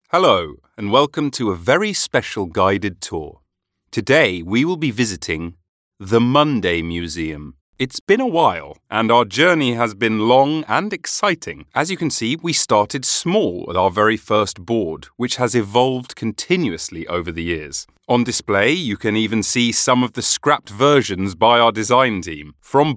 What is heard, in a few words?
english british e-learning